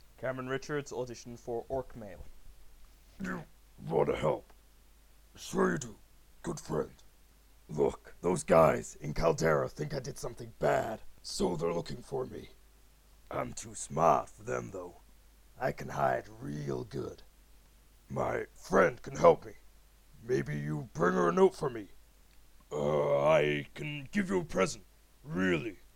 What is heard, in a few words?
orc audition